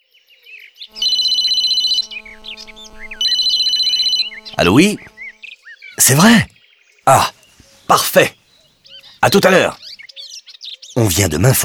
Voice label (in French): audioguide fr enfant; jardin; modave